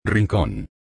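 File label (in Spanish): "rincon", español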